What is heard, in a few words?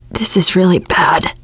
going to die, teengirl, voice